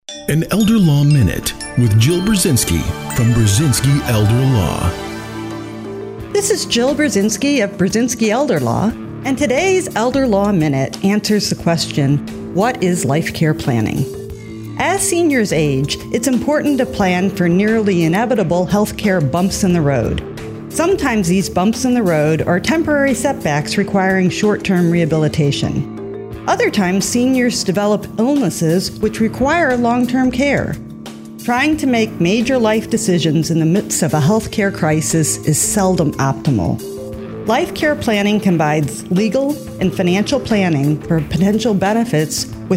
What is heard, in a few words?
PSA spot; Burzynski Elder Law